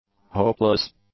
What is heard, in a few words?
"hopeless", English; adjectives